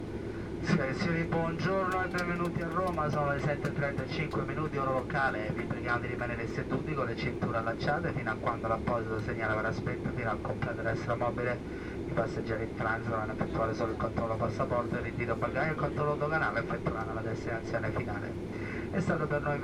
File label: Welcome To Rome Italian